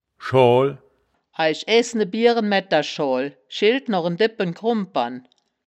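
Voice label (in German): "schoal", wittlicher woerterbuch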